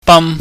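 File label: "bam", Cantonese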